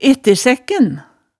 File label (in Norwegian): "ettesækken", hemsedalsmaalet